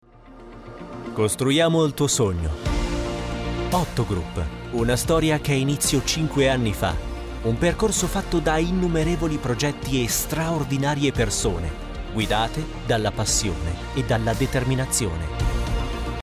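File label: Italian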